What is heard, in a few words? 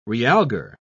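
"realgar", British English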